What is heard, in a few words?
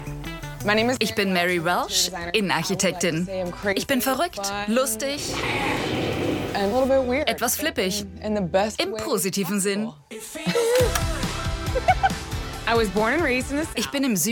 voiceover; LOFT VOICES